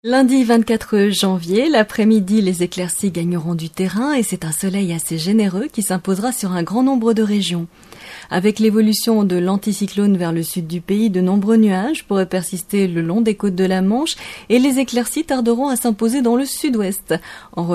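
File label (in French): Meteo, voix-off; casting de voix-off; taurus-studio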